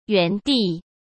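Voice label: "原地" Mandarin Chinese; hsk; chinese-flashcards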